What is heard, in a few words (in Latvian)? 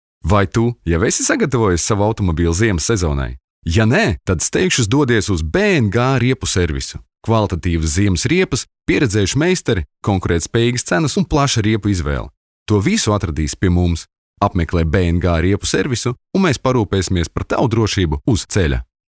Reklama